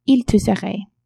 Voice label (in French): "il tousserait", French